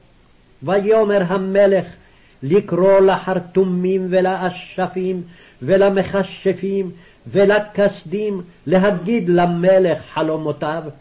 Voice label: Daniel Reading Practice, Aramaic